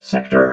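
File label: "sector", robot voice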